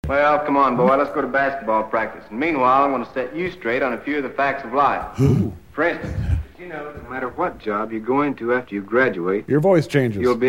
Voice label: voice changes